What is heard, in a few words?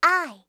"I", alphabet